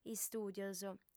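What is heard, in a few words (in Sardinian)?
"istùdios", Sardinian, female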